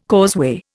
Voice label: "causeway", Indian

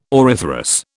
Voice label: "auriferous", British

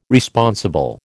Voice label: "Responsible", American

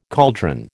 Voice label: "cauldron", American

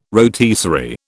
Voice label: "rotisserie", British

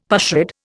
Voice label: "pushrod", Indian; Dictionary

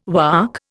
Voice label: "Waac", Indian

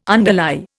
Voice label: "underlie", Indian

